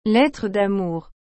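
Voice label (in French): "lettre d'amour", French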